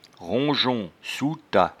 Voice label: "rongeon", patois jura

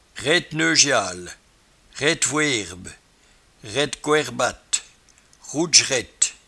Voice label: "raiteneujale", patois jura

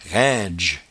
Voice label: "raindge", patois jura

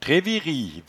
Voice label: "trevirievis", patois jura

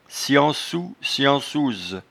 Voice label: "sciencou", patois jura

